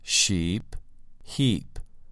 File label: "sheep" "heap"